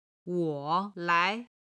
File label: "wo3lai2", chinese